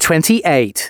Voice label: announcements male

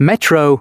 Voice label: announcements, male

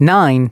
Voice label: announcements male

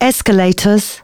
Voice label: female, announcements

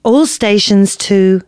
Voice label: announcements, female

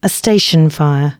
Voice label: announcements, female

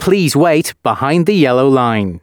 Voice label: announcements, male

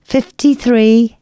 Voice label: announcements female

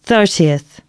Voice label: announcements, female

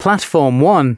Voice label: announcements, male

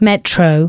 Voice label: announcements female

